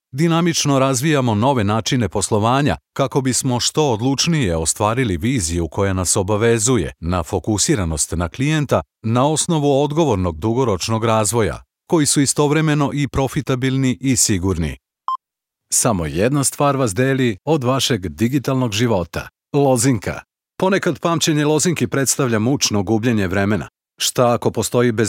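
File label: Serbian, male, corporate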